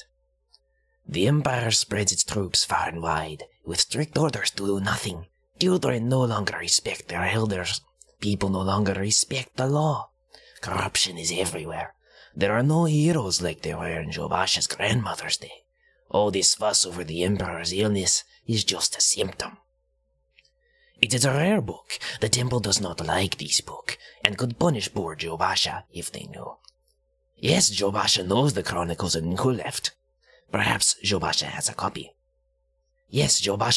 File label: Khajiit audition; jobasha; khajiit voice auditions